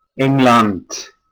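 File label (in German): "England", German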